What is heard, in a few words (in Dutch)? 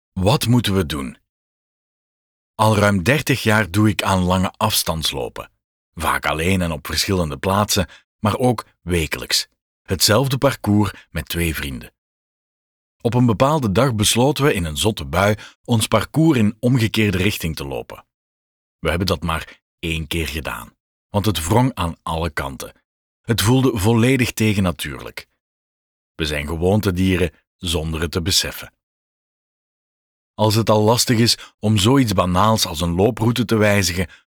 fragment luisterboek; Paul Verhaeghe; Houd Afstand Raak Me Aan